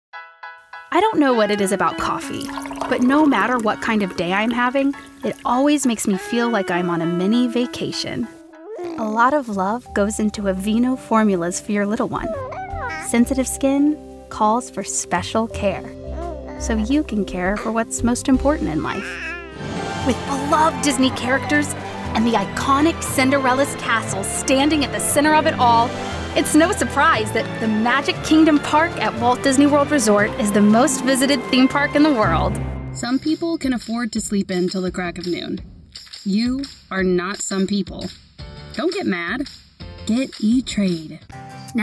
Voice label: Commercial VO

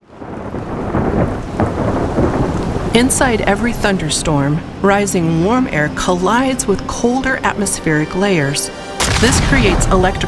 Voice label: Educational Science Fascinated, Intelligent

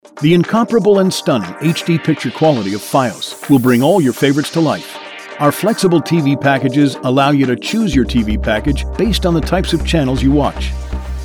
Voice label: Corporate Narration

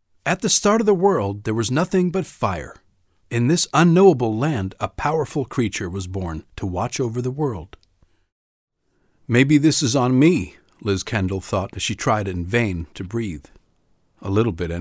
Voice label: eng with FX; VO

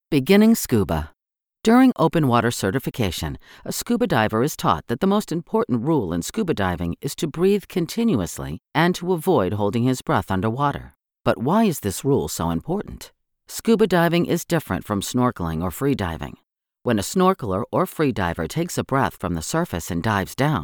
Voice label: E-Learning

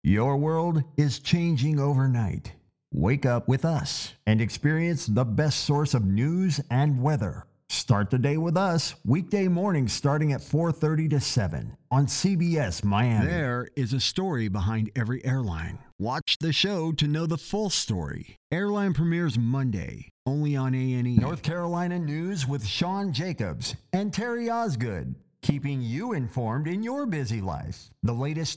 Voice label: TV AFFIL. PROMO

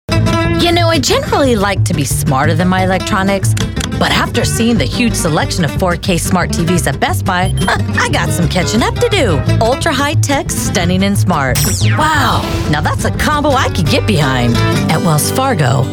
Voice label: Commercials

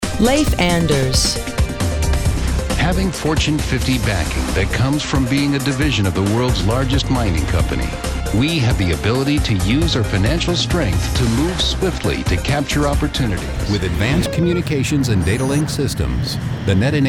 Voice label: Industrial Narration

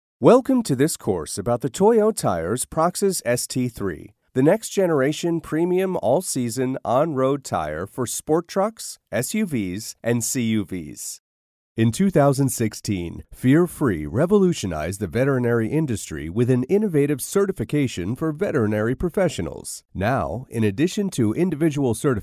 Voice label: E-LEARNING

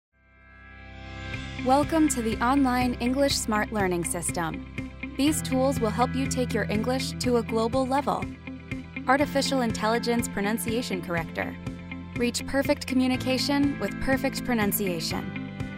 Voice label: eLearning

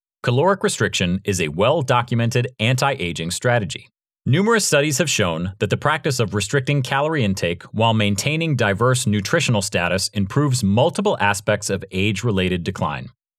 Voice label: Audiobook; Audiobook-Caloric Restriction Excerpt

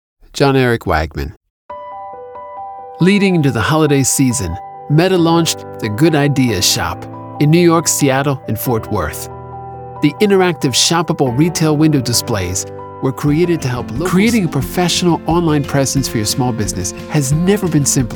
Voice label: FRIENDLY

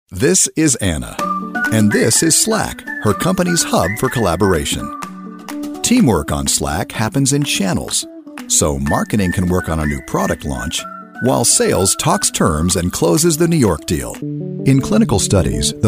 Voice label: corporate & narration

